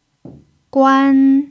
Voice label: "guan1", Mandarin pinyin